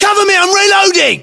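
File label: inform reloading generic, battlechatter, British; localized english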